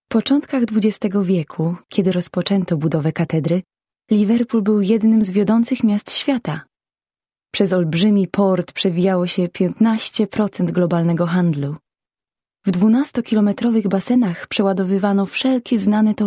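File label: Polish General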